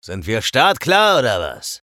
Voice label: menu chatter; eisen

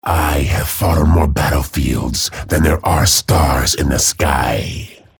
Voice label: chatter neutral

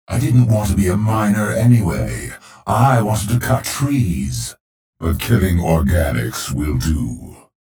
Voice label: menu chatter; clutch

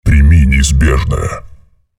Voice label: chatter neutral; visor

